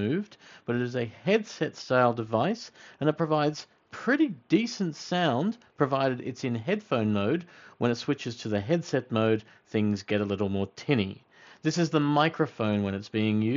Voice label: Mic Test At Desk Jabra Evolve2 65